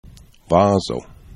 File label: "vazo", esperanto